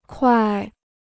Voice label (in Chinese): "块", Chinese